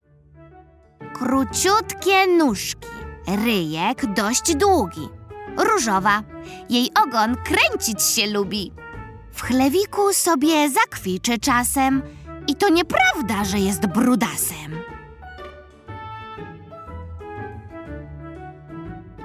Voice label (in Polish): narracja; czasopismo mis; lato; zagadka